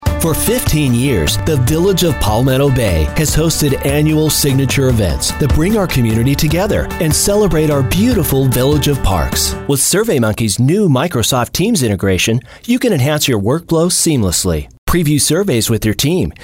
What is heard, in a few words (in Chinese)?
外籍英男 公共宣传